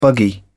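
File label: "buggy", British English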